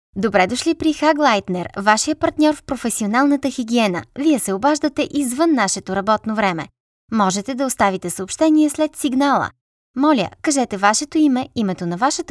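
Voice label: BG F corporate, Bulgarian, female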